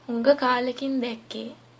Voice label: long time no see, sinhala